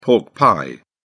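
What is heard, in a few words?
"pork pie", British English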